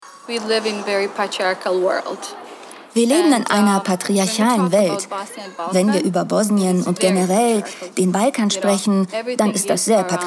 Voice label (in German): Doku Rolle Sprecherin; Jugo Kultura; Selma